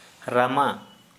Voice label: Santali, fingernail

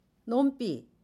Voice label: radish, Jeju-eo, Dialect: Jeju-si; 놈삐; noun radish

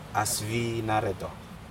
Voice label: break bread, Aneityum

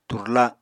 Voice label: "turla", apatani

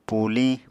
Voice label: "púliñ", Apatani

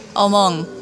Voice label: "mother", jeju

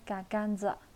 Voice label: "lagerstroemia", naxi

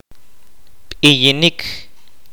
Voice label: "walk", kaqchikel

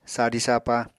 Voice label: "sadi-sapa", Apatani

